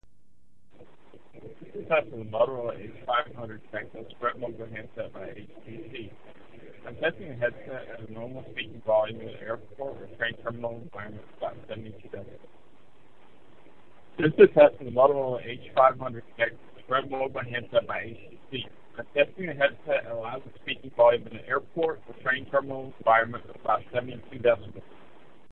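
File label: airport 72db, Bluetooth headset; Moto H500; Mogul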